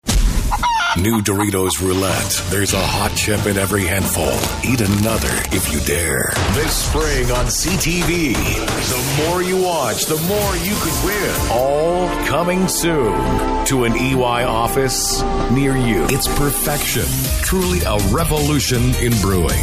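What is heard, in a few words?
English Canadian, male, commercial; voice demos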